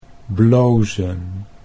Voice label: "blozen", Dutch